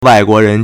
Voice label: "wai4guo2ren2", Chinese

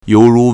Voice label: "you2 ru2", Chinese

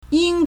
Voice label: "yin1", Chinese

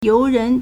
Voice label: "you2 ren2", Chinese

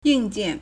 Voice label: "ying4jian4", Chinese

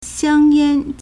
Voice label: "xiang1yan1", Chinese

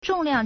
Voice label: "zhong4 liang4", Chinese

